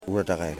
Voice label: "Turtle", Yimas